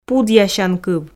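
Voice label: "пудъясянкыв", Komi, female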